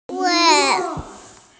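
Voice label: "fertile", Mandarin, hearing-impaired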